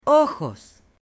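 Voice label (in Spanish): "OJOS" Spanish; CUERPO; AppLenguaje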